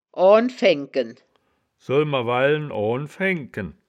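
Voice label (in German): "oan faengken", Wittlicher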